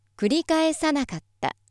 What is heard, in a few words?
female